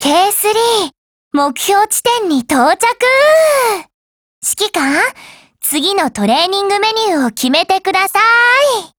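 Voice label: GAIN Japanese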